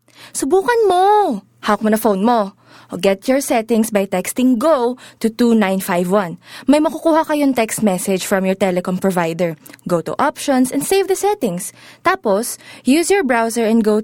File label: Taglish (Story Telling)